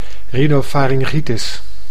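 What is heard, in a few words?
"rhynopharyngitis", Dutch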